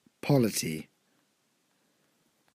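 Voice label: "polity", British English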